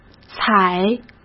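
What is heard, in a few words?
"采", Mandarin Chinese; hsk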